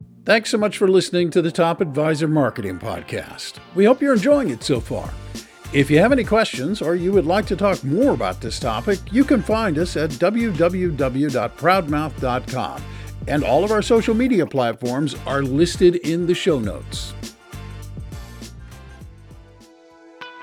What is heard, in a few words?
Male